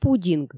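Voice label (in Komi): "пудинг", Komi, female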